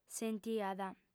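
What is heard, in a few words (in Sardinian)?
"sentiat", female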